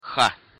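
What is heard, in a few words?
"х", Russian pronunciation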